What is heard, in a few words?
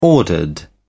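"ordered", English, British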